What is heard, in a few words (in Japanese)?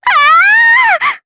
Damage, jp; May; kingsraid; voices heroes jp